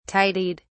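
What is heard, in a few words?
"tidied", British English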